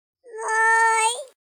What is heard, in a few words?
Poison Moan; hedgewars; Default es